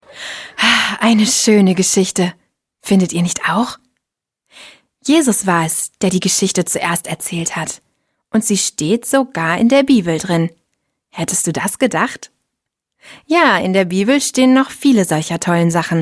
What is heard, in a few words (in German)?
Hoerspiel